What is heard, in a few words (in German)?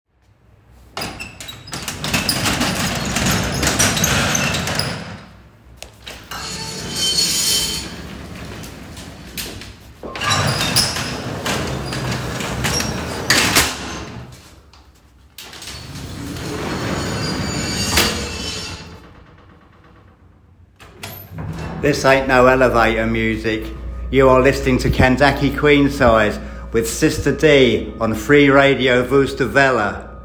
Jingel; Reggae; Punk meets Reggae